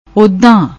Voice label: "oddan", Punjabi; ਓਦਾਂ

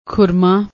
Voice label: "khurmaa", Punjabi; ਖੁਰਮਾ

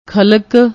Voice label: "khalak", Punjabi; ਖਲਕ

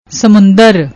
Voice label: "smundr", Punjabi

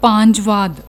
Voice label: "bhaanjvaad", Punjabi

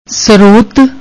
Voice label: "sarot", Punjabi; ਸ੍ਰੋਤ; سروت; noun, masculine; source, origin, provenance, provenience; spring

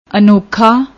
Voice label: "anokha", Punjabi; ਅਣੋਖਾ

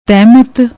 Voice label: "tehmat", Punjabi; ਤਹਿਮਤ

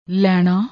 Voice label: "lainaa", Punjabi

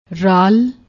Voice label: "rall", Punjabi

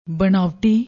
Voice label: "banaouti", Punjabi

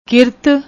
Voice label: "kirat", Punjabi; ਕਿਰਤ

